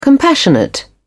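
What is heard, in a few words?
"compassionate", British English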